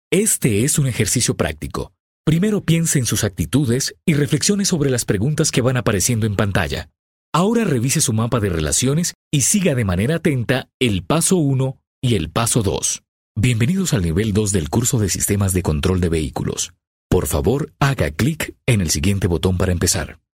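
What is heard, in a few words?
Spanish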